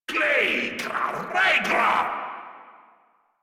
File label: Player Spotted, Grineer Male; Warframe